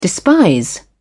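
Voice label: "despise", British English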